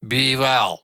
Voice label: Ost Male en; Cetus

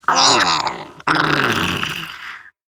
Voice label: Death en; Warframe; Grineer Catbrow Handler; Battle Barks

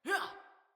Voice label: Female, Battle Barks; Envoy

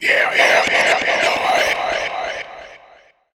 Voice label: Stalker Assassin Whispering; Warframe

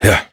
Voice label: Horse Start Adult, Dialog; Duviri

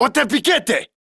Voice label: Player Spotted Corpus Male; en; Battle Barks

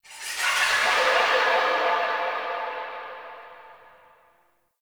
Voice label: Unnatural Speech; Ambience; Warframe; Shadowgrapher

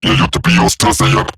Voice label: Cover Me, Robot Large; Warframe; Eximus Corpus Robotic Large; Battle Barks

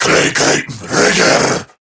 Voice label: Player Spotted, Male, Battle Barks; Warframe; CorruptedGrineerMale

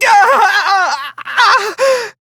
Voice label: Death, Male; Warframe; Railjack; Perrin Sequence

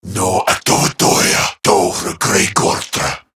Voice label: Patrol Corrupted Grineer Male

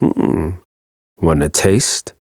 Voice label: Ambient Barks, en; Warframe; Mall Romance; Quincy

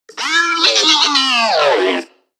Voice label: Necramech Repair Drone Idle Surprised; Battle Barks

